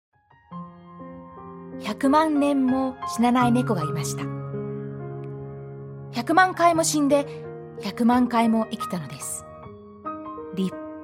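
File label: Japanese, narration; GoLocalise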